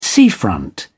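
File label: "seafront", English, British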